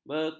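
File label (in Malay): "bekat", Malay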